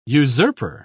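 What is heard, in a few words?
"usurper", British English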